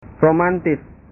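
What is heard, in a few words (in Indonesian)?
"romantis", Indonesian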